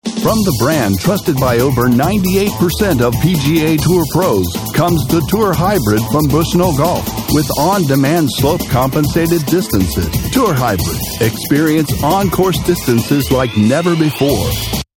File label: Commercial Authoritative Intense